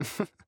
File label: Emote Positive